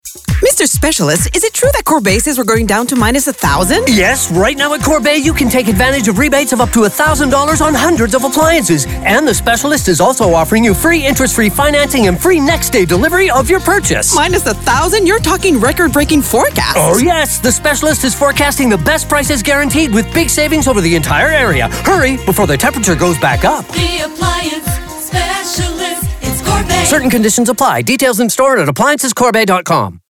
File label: Eng